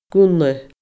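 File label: "gunna", Scottish Gaelic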